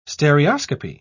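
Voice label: "stereoscopy", British English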